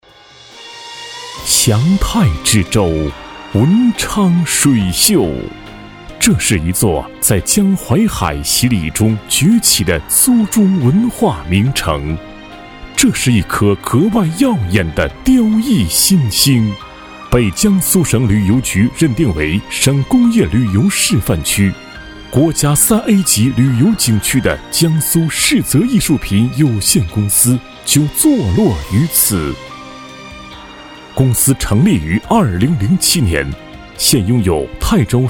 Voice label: 男, 专题; 世泽艺术品

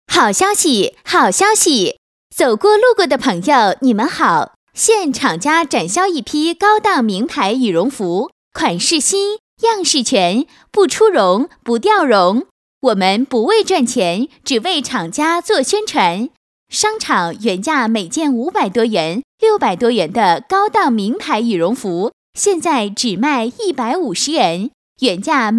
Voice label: 女 年轻甜美, 广告促销 亲切 温柔 促销名牌羽绒服

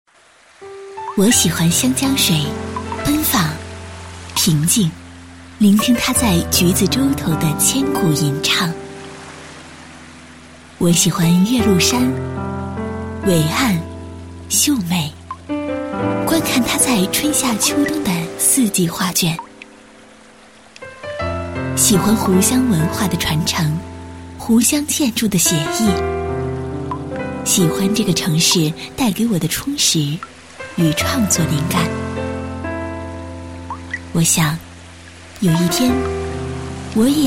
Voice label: 女, 专题; 长沙风景区录音

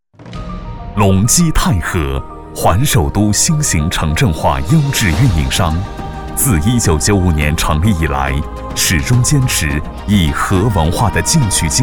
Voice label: 男 房地产专题 稳重; 万和郡

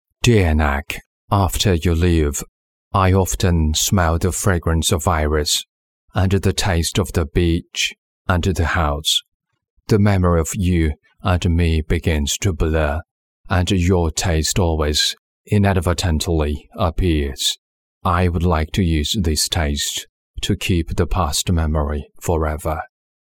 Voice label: 英男; 念 致亲爱的

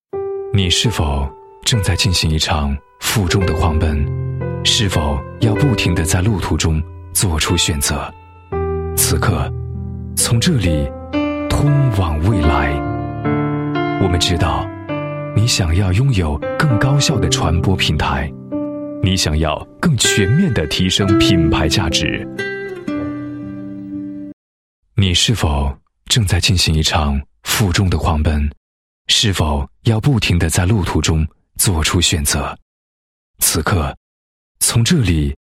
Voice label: 男 宣传片; 越创新 华视

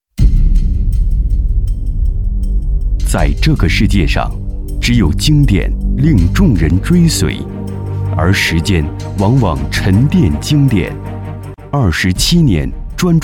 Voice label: 男 大气厚重 家具宣传片; 华盛

